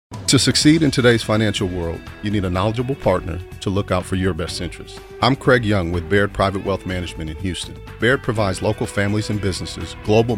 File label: Radio; KTRH